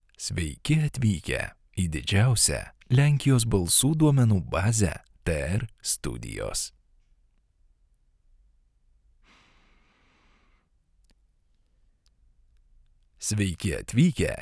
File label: Lithuanian studio sound test